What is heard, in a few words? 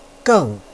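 "geng4", Mandarin Chinese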